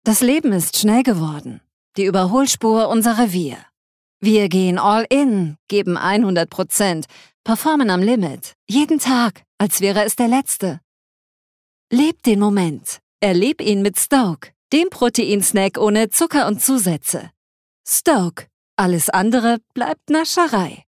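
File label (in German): Werbung, Dynamisch; Stoke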